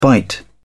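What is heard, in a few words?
"bite", British English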